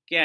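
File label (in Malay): "kean", Malay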